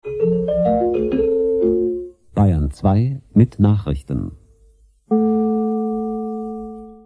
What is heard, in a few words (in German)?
nachrichten, jingles